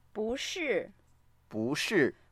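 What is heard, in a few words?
"bu4shi4", Mandarin Chinese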